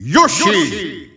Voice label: Dutch Announcer; Yoshi; SSBU